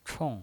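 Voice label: "chong", Mandarin Chinese pinyin voice, fourth tone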